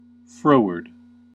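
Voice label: "froward", American English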